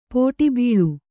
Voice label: "ಪುಟಬಾಳೆ", Kannada

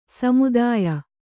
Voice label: "ಸಮುದಾಯ", kannada

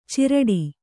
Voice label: "ಚಿರಡಿ", Kannada

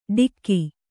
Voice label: "ಢಿಕ್ಕಿ", Kannada

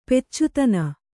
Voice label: "ಪೆಚ್ಚುತನ", Kannada

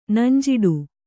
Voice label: "ನಂಜಿಡು", Kannada

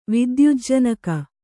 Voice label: "ವಿದ್ಯುಜ್ಜನಕ", Kannada

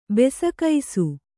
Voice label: "ಬೆಸಕೈಸು", Kannada

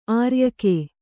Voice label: "ಆರ್ಯಕೆ", Kannada

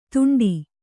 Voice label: "ತುಂಟಿ", Kannada

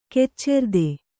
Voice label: "ಕೆಚ್ಚೆರ್ದೆ", Kannada

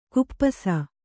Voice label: "ಖಪ್ಪಸು", Kannada

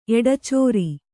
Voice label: "ಎಡಚಾರಿ", Kannada

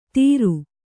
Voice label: "ಧಿರು", Kannada

